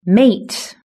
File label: "mate", british english